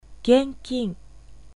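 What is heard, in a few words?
Japanese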